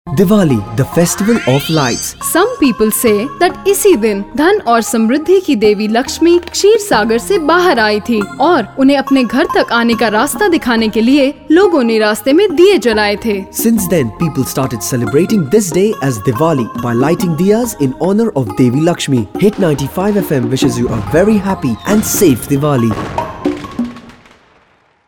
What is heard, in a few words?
Festival Of Lights Promo, female voice